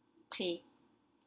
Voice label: "pre", French; meadow

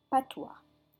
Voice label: "patois", French

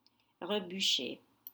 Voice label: "rebouchait", French

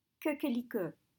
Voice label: "coquelicot", French

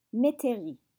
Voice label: "métairie", French

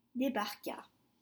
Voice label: "debarqua", French; land

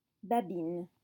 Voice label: "babines", French

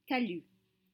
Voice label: "talus", French

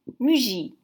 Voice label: "Mugit", French

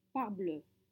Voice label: "parbleu", French

